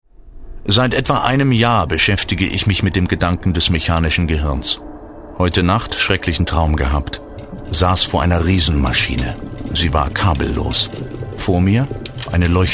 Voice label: Audio books Story telling General public, German voice-over, Serious and Engaged